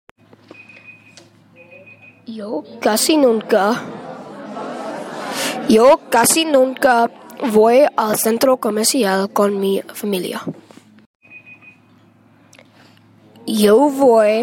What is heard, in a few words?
spanish oral test